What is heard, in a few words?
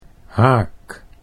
"haak", Dutch